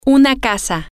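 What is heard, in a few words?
a House, Spanish, female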